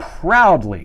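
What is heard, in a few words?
"proudly", English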